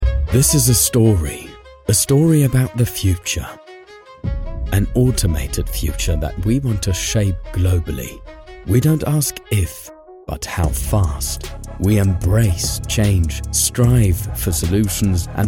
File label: ENGLISCH, NATIVE UK - cool, geführt, souverän, Off; English UK, English NEUTRAL; R. Stahl Group